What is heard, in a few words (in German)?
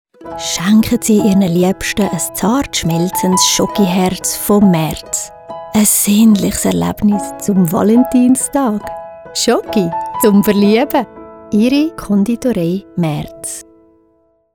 Schoggi, Sprecherin; Merz